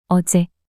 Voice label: "어제" 단어 여자

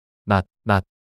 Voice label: 낮낮 낱소리 남자

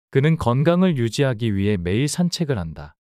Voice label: 그는 건강을 유지하기 위해 매일 산책을 한다 문장 남자

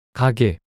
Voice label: "가게" 단어 남자

